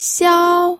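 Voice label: "xiao1", Mandarin Chinese pinyin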